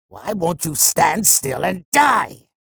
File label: chatter negative; sorlag; respawn